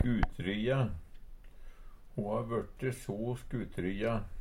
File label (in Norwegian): "skutryjja", Numedalsmål; Adjektiv